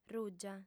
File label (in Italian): "rùgia", lingua sarda